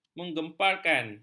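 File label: "menggemparkan", Malay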